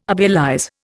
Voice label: "obelize", Indian